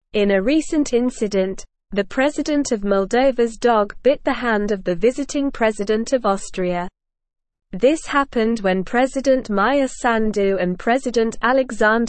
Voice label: English Newsroom Upper Intermediate SLOW Reading; Moldovan Presidents Dog Bites Austrias President Va